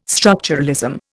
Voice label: "structuralism", Indian